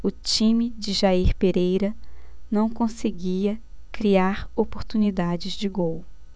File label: PORTUGUESE BRAZIL